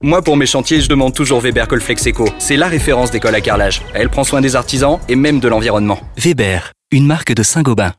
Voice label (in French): spot radio; weber wecompoze